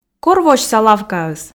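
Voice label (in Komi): кор восьса лавкаыс, Komi, female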